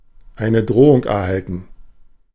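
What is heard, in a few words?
German "eine Drohung erhalten"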